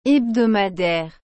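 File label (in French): "hebdomadaire", French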